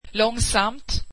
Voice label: swedish slowly